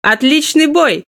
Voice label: Speech Quick Commands Good Game